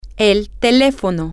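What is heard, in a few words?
Spanish, the telephone, female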